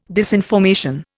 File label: "disinformation", Indian